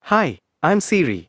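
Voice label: Indian English; iOS 26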